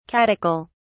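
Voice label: "catechol", British English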